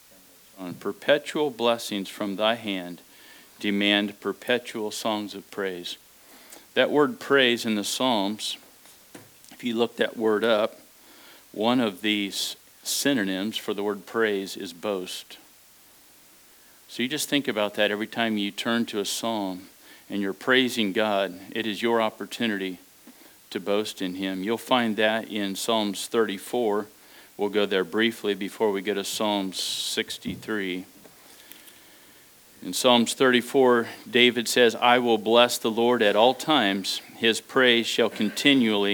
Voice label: Devotional